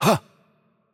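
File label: Romanian AttackLight; bloom minigame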